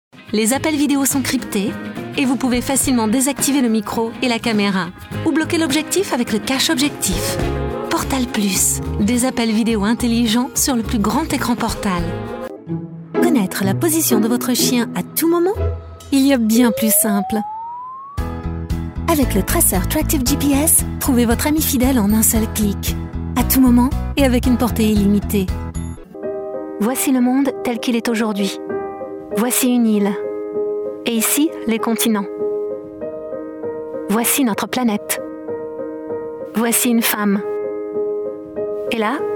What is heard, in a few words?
French Commercials